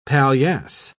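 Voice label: "paillasse", British English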